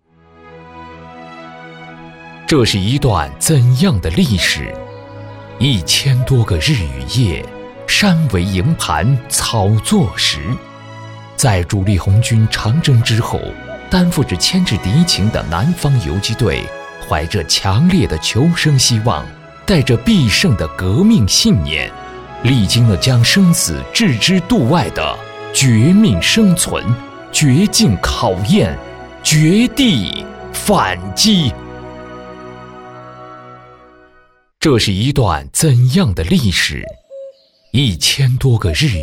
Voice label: 解说影视; 南方游击队

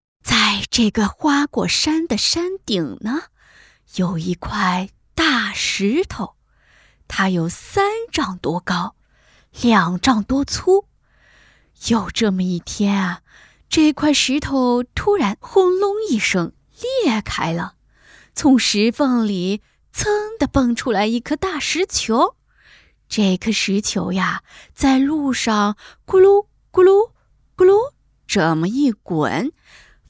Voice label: 绘本配音 少儿; 西游记; 凭栏远眺

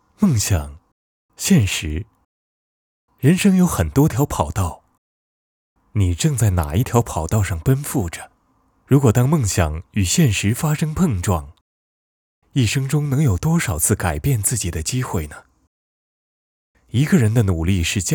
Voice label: 男声形象试音

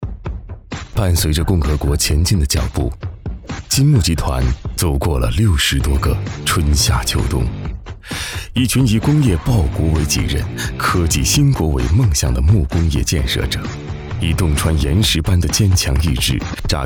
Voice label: 企业磁性

